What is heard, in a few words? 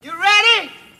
"you ready", female; questions